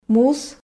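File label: "мус", Komi, female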